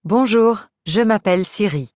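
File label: French, France; iOS Sounds; marie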